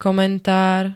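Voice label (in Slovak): "komentár", Slovak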